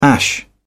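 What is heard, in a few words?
"ash", English, British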